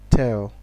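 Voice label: "tell", Nigerian Pidgin, male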